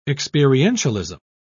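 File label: "experientialism", English, British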